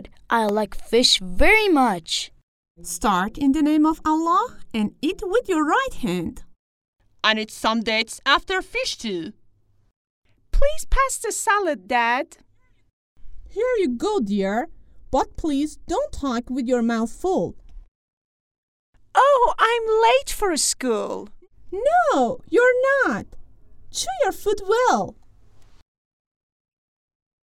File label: conversation